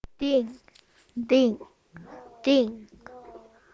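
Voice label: "ding", spoken word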